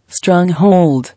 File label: "Strong-hold", English